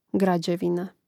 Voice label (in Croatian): "građevina", hrvatski; mreznik